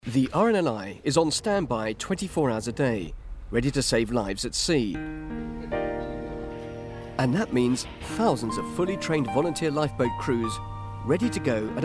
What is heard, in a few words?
Narration